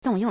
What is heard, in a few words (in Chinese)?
"dong4yong4", Chinese